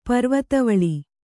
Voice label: "ಪರ್ವತಾವಳಿ", Kannada

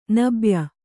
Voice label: "ನಬ್ಯ", Kannada; nabya; modern

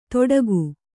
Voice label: "ತೊಡಕು", Kannada

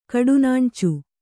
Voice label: "ಕಡುನಾಣ್ಚು", Kannada

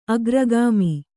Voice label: "ಅಗ್ರಗಾಮಿ", Kannada

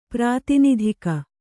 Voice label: "ಪ್ರಾತಿನಿಧಿಕ", Kannada

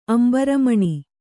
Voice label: "ಅಂಬರಮಣಿ", Kannada

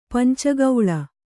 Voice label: "ಪಂಚಕೋಲ", Kannada

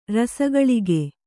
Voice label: "ರಸಘಳಿಗೆ", Kannada

